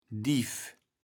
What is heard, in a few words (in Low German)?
"Dief", kaevels platt